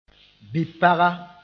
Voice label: "bi-paga", moore